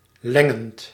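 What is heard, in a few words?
"lengend", Dutch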